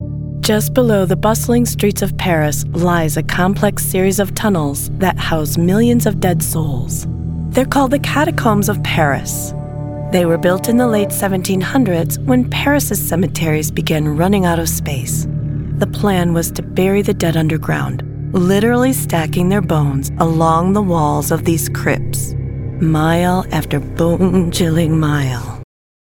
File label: Travel narration; Coachingwellbeing